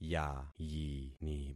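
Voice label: "yayini", concatenated syllables; Affixing experiment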